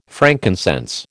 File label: "frankincense", American